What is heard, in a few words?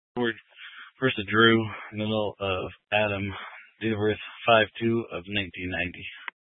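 Troop 2A Dispatch, scanner audio recording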